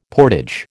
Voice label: "portage", American